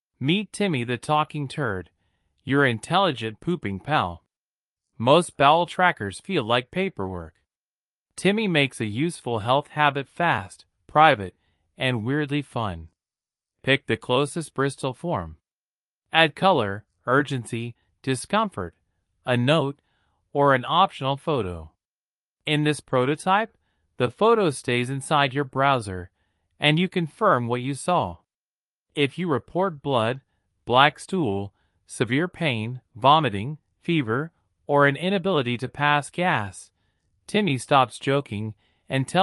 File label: narration; timmy talking turd